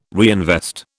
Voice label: "reinvest", British